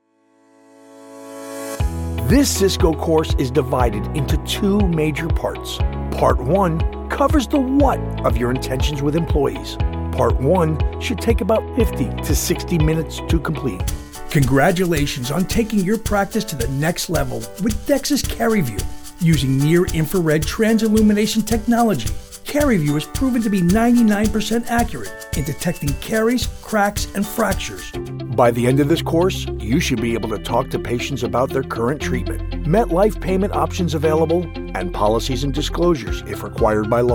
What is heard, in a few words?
narration